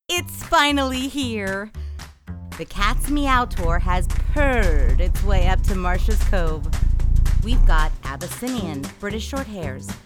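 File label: Cat Show commercial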